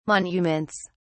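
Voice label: "monuments", British English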